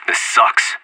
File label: scared emote; Counter-Strike Global Offensive